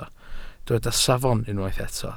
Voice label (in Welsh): "safon", Welsh